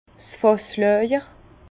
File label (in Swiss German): "fasslajer", Bas Rhin; vin; socle